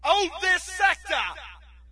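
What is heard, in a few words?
British hold; player; source engine; dod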